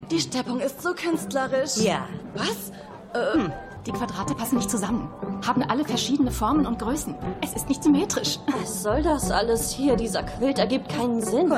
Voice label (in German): Frau, Stimmproben